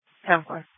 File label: Police Dispatch